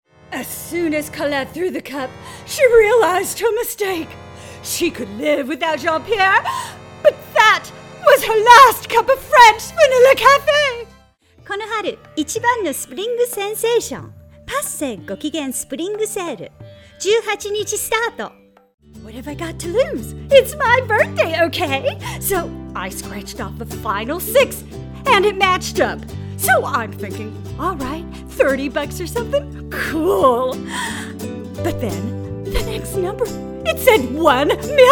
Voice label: Characters, voiceover